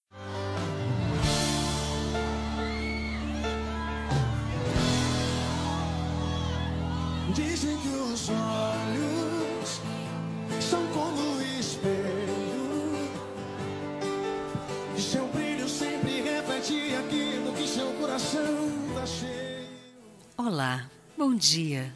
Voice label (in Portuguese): BOM DIA ROMANTICO F, telemensagem